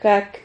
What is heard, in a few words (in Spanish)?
"jac", Silabas